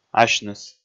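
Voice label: "асьныс", Komi, male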